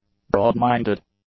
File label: "broadminded", English; adjectives